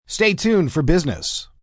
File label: Tuned 4 Business M, Broadcast, announcer, breakaway, male; VoiceBox